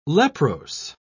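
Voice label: "leprose", British English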